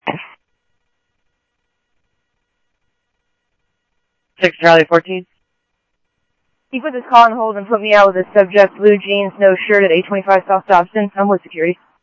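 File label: Lakes Sheriff Lake Patrol, scanner audio trunk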